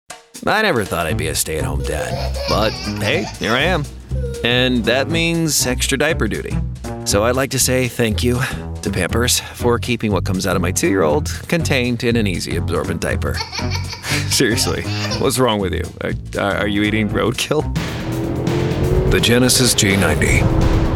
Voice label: commercial mono